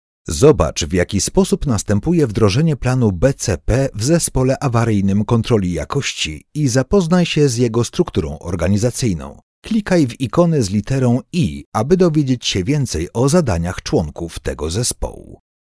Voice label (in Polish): M E LEARNING